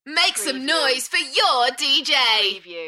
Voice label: UK female Make some noise for your dj Enthusiastic; beanstalk audio